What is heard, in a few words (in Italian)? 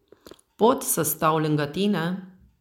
Rumeno